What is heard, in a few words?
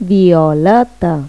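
"violeta", Spanish; Pipo-Aprende musica con Pipo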